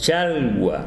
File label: "pescado", Tsesungun